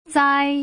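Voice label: "zai", Mandarin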